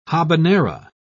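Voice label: "habanera", British English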